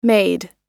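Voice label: "made", American English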